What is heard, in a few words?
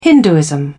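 "hinduism", British English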